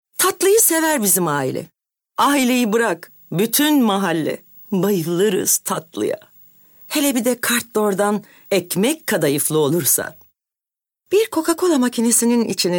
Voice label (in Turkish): REKLAM, tr